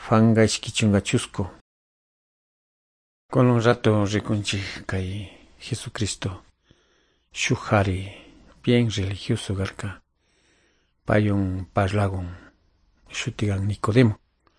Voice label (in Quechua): good news quichua de calderon